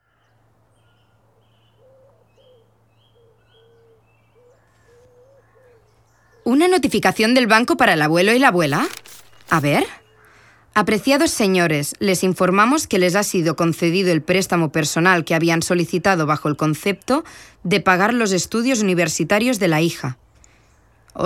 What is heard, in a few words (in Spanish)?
audioguia